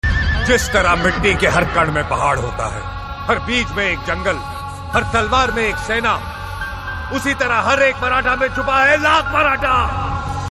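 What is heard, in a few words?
Dialogue Ringtone; Tanhaji Trailer; Ajay Devgan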